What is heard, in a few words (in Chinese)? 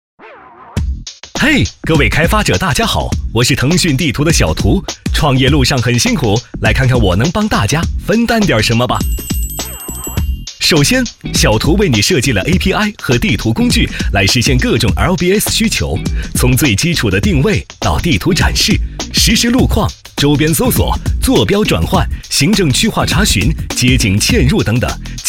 男国 专题 产品 轻松; 腾讯地图